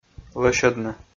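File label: "лӧсьӧдны", Komi, male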